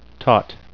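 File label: "taut", English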